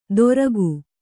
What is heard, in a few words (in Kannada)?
"ದೊರಕು", Kannada